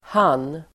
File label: "han", Swedish